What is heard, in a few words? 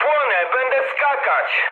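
Polish on fire mood low; War Thunder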